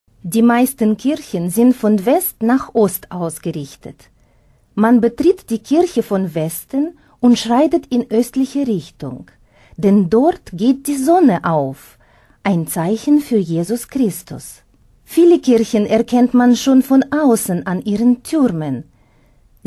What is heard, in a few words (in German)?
deutsch russicher akzent, frauen aus aller welt